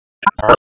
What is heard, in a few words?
Police Southeast District Tac; Raleigh; Tac 1